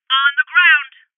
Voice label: Order To Surrender Female, Voices